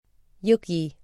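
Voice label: "jõgi", Estonian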